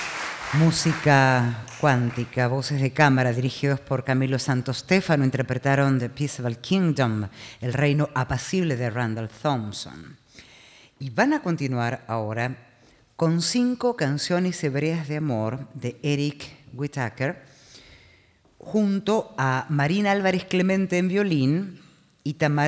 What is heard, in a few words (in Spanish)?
locutora